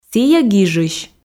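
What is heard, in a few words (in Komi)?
сійӧ гижысь, Komi, female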